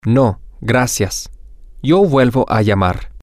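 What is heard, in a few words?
no thank you I will call back later, Spanish, male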